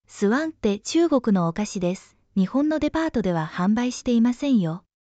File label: conversation, department store; japan study; jpnavi one